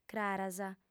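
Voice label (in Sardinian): "craras", female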